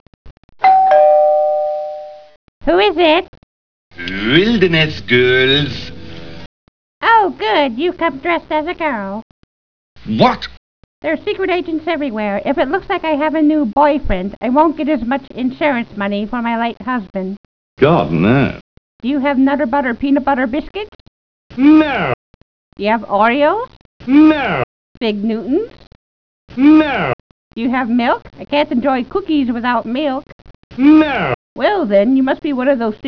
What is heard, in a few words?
old woman